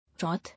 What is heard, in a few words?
"Wrath", English